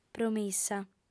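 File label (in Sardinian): "promissa", female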